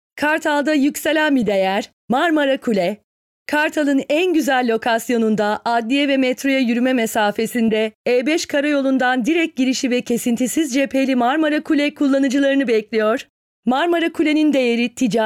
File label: Turkish, vo